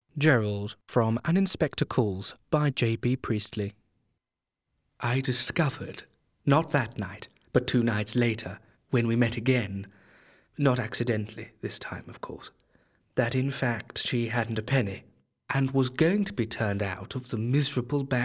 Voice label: Classic Drama; An Inspector Calls